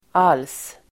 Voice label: "alls", Swedish